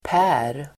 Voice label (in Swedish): "per", Swedish